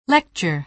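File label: "lecture", American English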